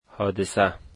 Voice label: "accident", Dari